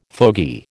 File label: "fogey", American